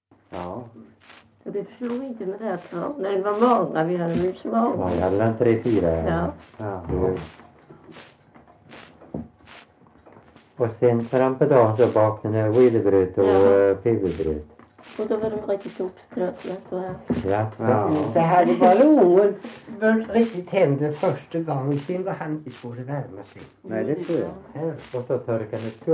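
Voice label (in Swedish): Värö Halland, Svenska sagor dialekter; Folkminnen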